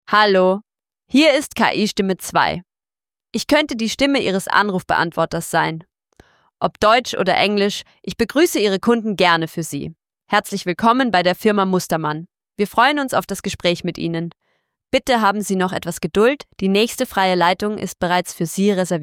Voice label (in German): KI-Stimme